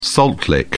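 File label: "salt lick", British English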